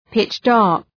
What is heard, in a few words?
"pitch dark", English